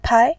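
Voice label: "pai", Mandarin, tone 2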